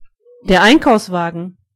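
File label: cart, German voices words; gcompris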